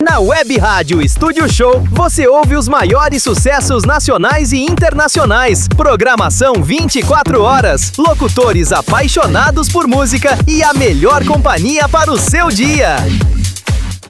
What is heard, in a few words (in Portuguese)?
chamada para web radio voz padrao